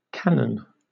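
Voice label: "canon" English, British accent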